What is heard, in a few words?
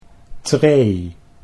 "tree", Dutch